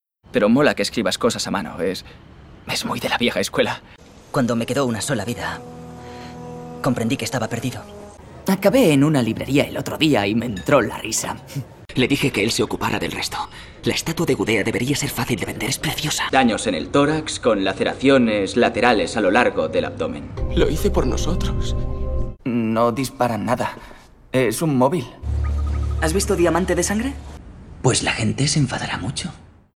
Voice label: male spanish movie comercial; ideasonora